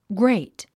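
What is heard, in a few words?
"grate", English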